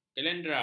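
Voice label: "kelendara", Malay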